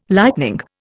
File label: "lightning", Indian